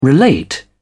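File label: "relate", British English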